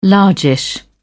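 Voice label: "largish", British English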